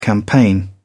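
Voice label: "campaign", British English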